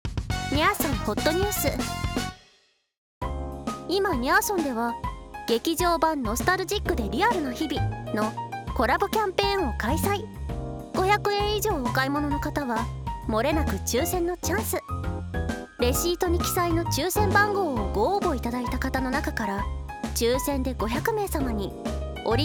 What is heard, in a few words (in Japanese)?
店内放送 コラボキャンペーン編; にゃ～そん店内放送 コラボキャンペーン編